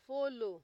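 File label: "follow", Nigerian Pidgin, female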